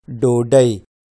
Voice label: "ddodduy", Pashto

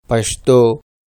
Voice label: "pashto"

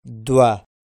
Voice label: "dwa", Pashto

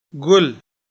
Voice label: "guul", Pashto

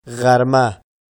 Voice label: "gharma", Pashto

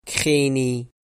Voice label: "kxeneyy", Pashto

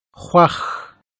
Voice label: "khwux", Pashto

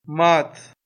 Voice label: "maat", Pashto

